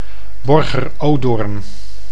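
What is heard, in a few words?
"Borger-Odoorn", Dutch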